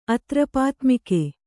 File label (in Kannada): "ಅತ್ರಪಾತ್ಮಿಕೆ", Kannada